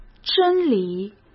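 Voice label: "真理", Mandarin Chinese; hsk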